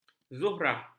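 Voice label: "zuhrah", Malay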